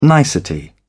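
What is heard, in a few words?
"nicety", British English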